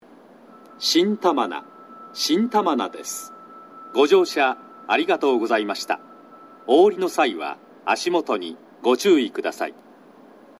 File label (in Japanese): 11番のりば到着放送; 新玉名; 標準の放送内容です。